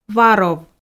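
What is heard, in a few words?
"варов", Komi, female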